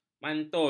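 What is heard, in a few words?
"mantul", Malay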